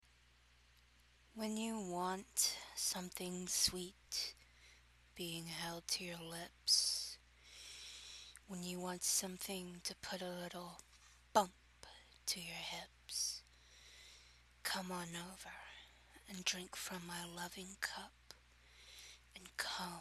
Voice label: Erotic poetry